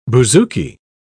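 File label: "bouzouki", British English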